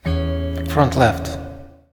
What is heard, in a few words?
audio channel front left